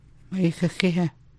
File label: fence corral, Hidatsa; maʔíixaxia; N 1fence, corral 2corral